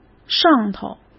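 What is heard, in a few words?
"上头", Mandarin Chinese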